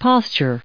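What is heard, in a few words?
"postures", us English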